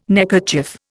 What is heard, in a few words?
"neckerchief", Indian